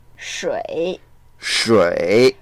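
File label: "shui3", Mandarin Chinese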